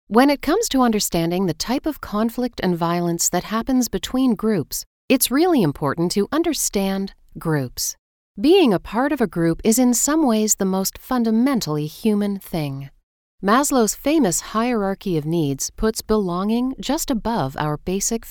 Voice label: Narration; TaDa

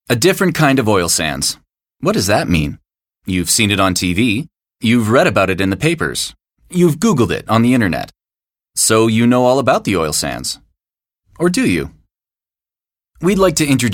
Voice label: Narration; TaDa

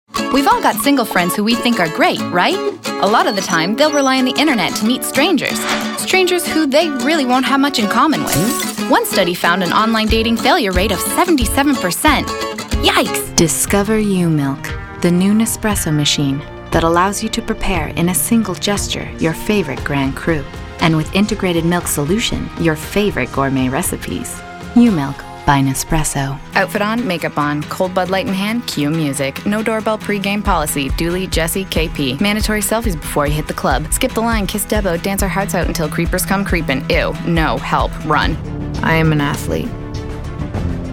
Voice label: Commercial; TaDa

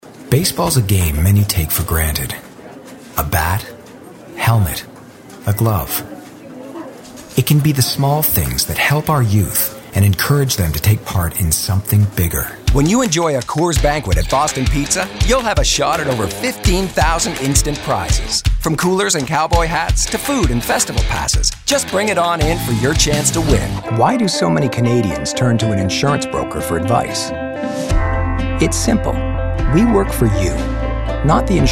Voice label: Commercial; TaDa

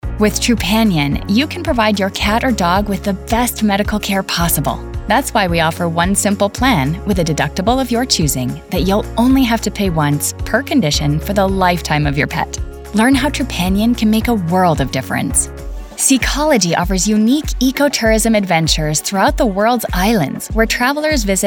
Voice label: corporate

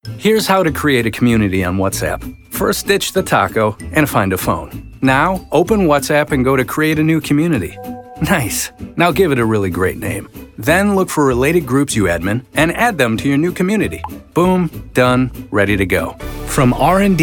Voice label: Narration

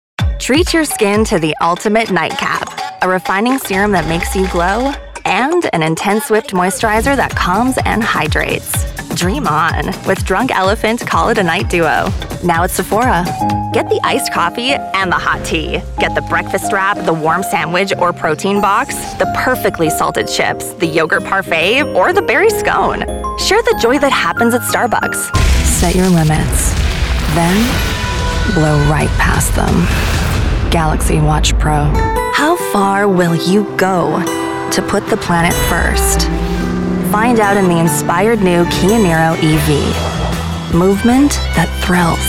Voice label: commercial; TaDa

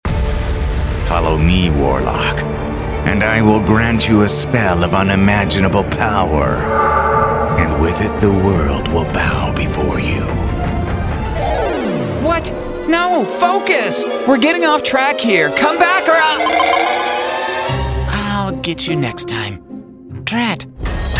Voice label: animation; TaDa

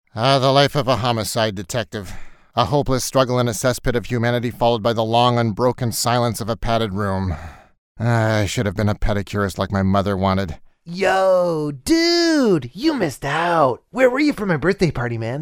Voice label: Character; TaDa

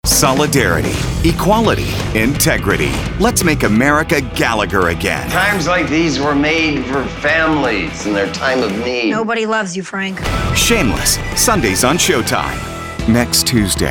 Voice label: promo; TaDa

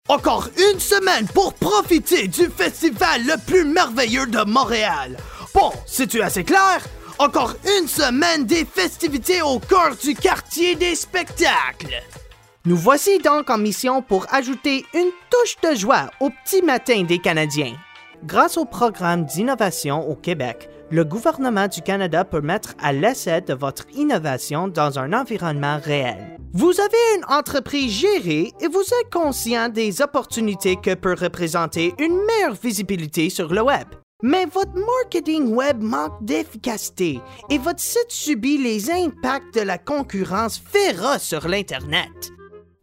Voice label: French Commercial; TaDa

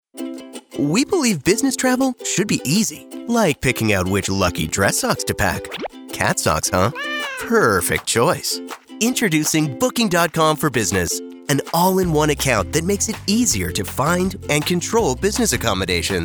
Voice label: Corporate Narration

